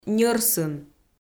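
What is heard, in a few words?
"ньӧрсын", Komi, female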